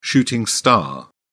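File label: "shooting star", British English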